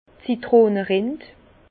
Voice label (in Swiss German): "zitrone rind", Bas Rhin; vin; écorce d’agrumes